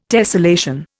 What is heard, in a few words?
"tessellation", Indian